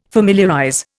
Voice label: "familiarize", Indian

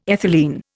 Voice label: "ethylene", Indian

